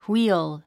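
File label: "wheel", English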